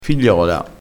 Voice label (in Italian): "figliola", vocabolario dialettale